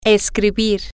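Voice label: to write, spanish, female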